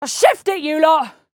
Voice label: english Moving; azurlane hoi4; azurlane sr reforged

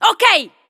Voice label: italian Idle; azurlane hoi4; azurlane sr

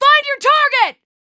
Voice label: american Neutral; azurlane hoi4; azurlane tj

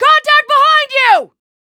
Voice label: american Neutral; azurlane hoi4; azurlane sr reforged

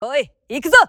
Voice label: japanese Moving; azurlane hoi4; azurlane tj

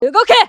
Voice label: japanese Neutral; azurlane hoi4; azurlane tj

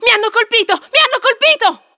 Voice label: italian Retreat; azurlane hoi4; azurlane sr

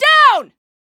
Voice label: american Positive; azurlane hoi4; azurlane sr reforged

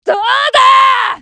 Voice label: japanese Positive; azurlane hoi4; azurlane tj

